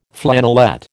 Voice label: "flannelette", American